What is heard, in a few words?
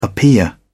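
"appear", British English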